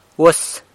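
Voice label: "really", Zapotec; uas; San Lucas Quiaviní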